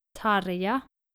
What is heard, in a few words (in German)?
nordische maedchennamen tarja